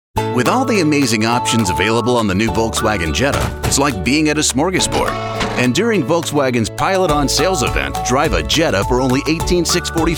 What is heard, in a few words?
Male Voice Over Talents Commercial Automotive